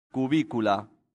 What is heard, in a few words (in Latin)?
"cubicula", latin; santillana